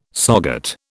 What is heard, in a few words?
"SOGAT", British